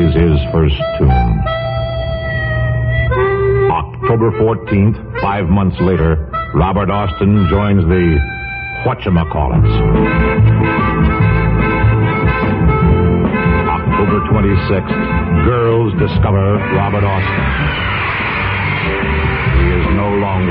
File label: psychedelic promos and radio spots hohner harmonica ad